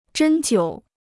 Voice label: "针灸", Chinese

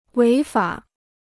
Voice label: "违法", Chinese

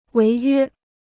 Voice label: "违约", Chinese

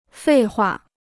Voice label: "废话", Chinese

